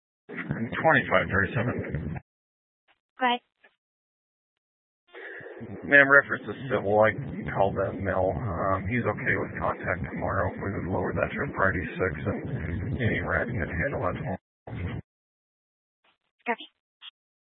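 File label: sheriff dispatch radio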